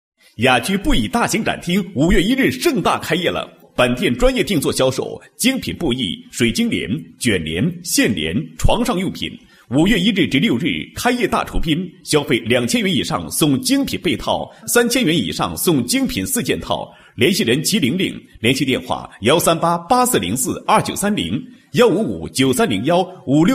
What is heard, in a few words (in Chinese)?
广告, 国语男声配音; 高音经济型; 雅居布艺大型展厅